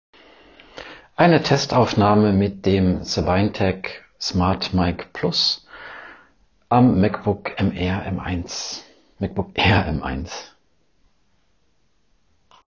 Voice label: Testaufnahme SabineTek SmartMike Bluetooth

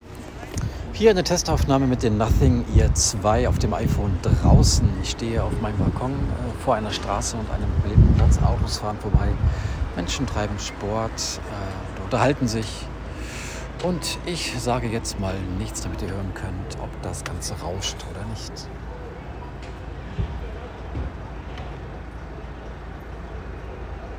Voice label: Nothing Ear 2 Testaufnahme iPhone draussen

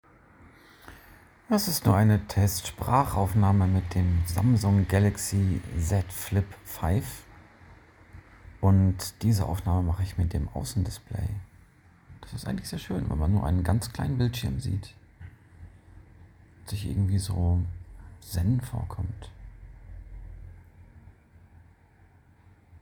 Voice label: Samsung Galaxy Z Flip5 Sprachaufnahme

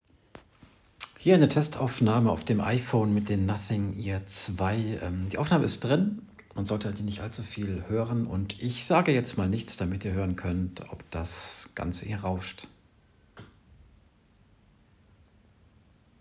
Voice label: Nothing Ear 2 Testaufnahme iPhone drinnen

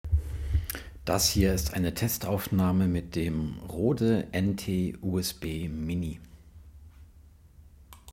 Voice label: Testaufnahme Rode NT USB mini

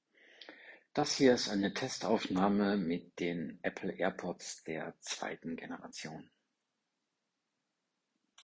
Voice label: Testaufnahme Apple AirPods 2. Gen

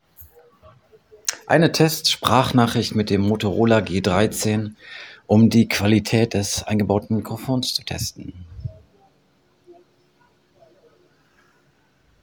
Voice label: Motorola G13 Test Sprachnachricht

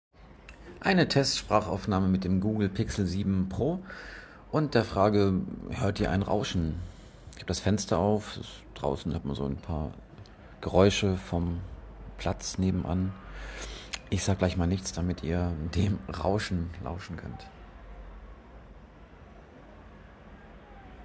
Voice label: Pixel 7 Pro Sprachaufnahme Mikrofone

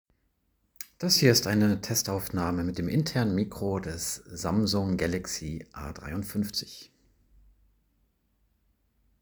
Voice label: Testaufnahme Samsung Galaxy A53

